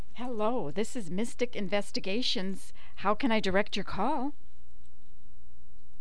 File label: mystic phone message